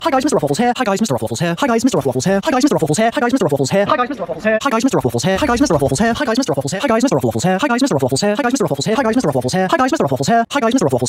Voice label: hi guys 2x speed